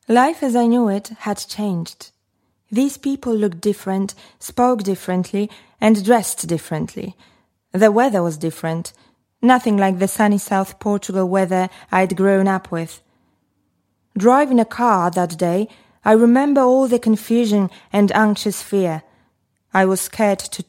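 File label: Polish Accented English; GoLocalise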